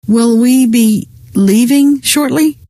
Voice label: will we leave, scientist female